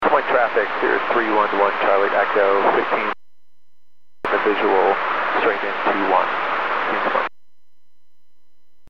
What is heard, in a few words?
Aviation 122.7; KSTE